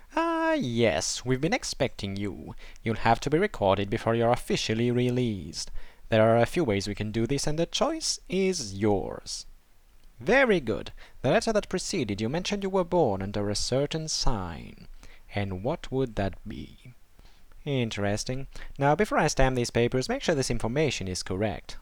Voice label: breton, breton voice auditions; socucius ergalla